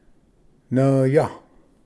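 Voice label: "nvya", Cherokee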